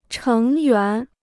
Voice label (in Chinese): "成员", Chinese